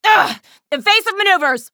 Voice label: chatter negative; athena; respawn